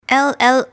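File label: "ll", English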